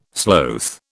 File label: "sloth", British